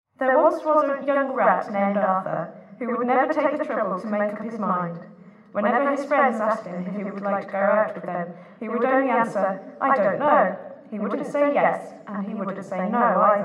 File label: adult female speech conv